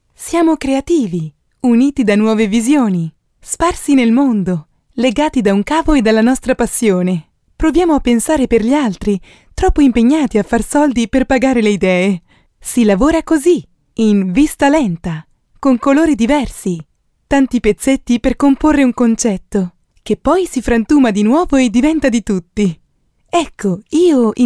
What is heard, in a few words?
female Italian